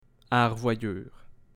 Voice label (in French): "à revoyure", québécois